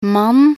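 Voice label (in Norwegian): "mann" Norwegian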